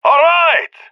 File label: cheer; Counter Strike; CS:GO; leet epic